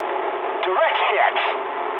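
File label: English bomb success mood high; speech; War Thunder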